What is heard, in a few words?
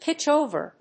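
"pitch over", English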